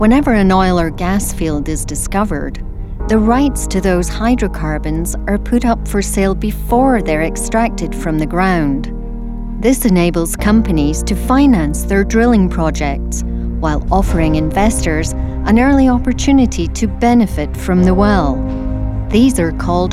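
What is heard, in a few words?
Corporate Science Narration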